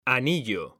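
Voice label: "anillo", Spanish, male; mekanta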